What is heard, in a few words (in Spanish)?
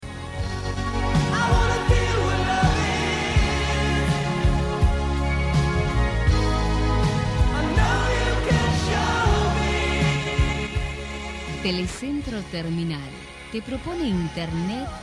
Comercial Radio; Centro Terminal (RadioLaFalda) Commercial